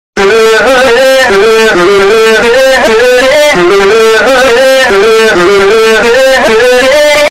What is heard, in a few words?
hue hue hue earape; metal sonic